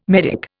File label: "medick", Indian